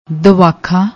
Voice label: "davakha", Punjabi; ਦਵਾਖਾ